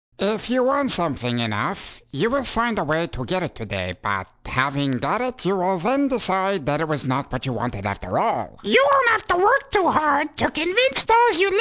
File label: animation, voice demos